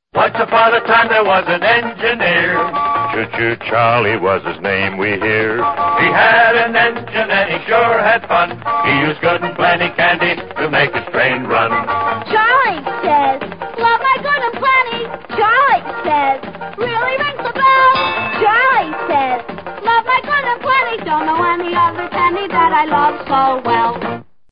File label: Good n Plenty Choo Choo, Old Radio Ads